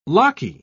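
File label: "locky", English, British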